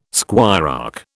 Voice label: "squirearch", British